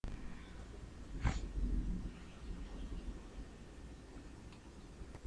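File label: "lead", spoken word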